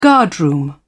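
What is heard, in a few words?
"guardroom", British English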